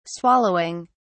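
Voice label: "swallowing", British English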